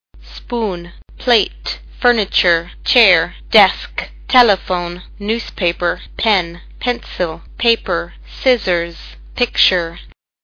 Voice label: english